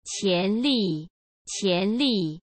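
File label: "qian2 li4", Mandarin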